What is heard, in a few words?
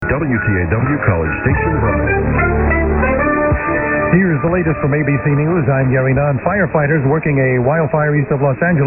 news; abc news; wtaw